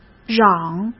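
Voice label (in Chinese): "嚷", Mandarin Chinese; chinese flashcards; hsk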